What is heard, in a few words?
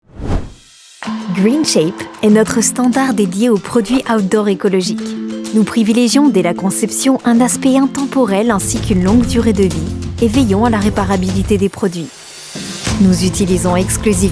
FR presentation; institutionnel; Greenshape